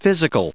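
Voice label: "physical", us English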